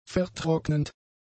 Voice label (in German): "vertrocknend", German; adjectives